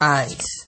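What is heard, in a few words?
"eyes", Nigerian Pidgin, male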